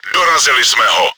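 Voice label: enemy killed by player, чешской озвучки; World of Tanks; Dorazili jsme ho; Мы его добили!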